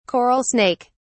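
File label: "coral snake", British English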